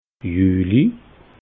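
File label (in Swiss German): "juli", Alsatian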